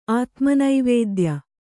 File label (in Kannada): "ಆತುಮನಿವೇದ್ಯ", Kannada